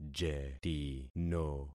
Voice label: "jetino", concat syllables; Affixing experiment